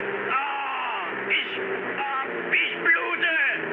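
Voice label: German damaged pilot mood low, speech; War Thunder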